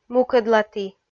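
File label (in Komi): "мукӧдлаті", Komi, female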